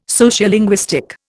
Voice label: "Sociolinguistic", Indian